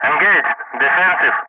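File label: "engaged defensive", English; Ka-50; Wingman Messages; DCS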